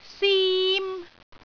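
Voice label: "sim1", Cantonese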